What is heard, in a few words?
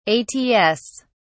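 "ats", English, British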